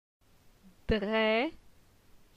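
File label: "Dre", French; phonetique; la syllabe e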